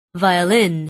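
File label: "violin", English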